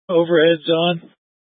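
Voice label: Incident Tactical, scanner audio recording; Incident Tac 3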